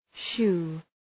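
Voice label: "shoe", English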